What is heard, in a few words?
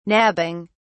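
"nabbing", British English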